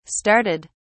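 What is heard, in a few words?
"started", British English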